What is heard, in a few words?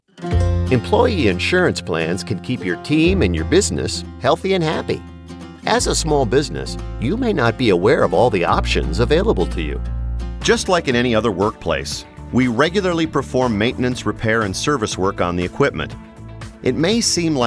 Narrative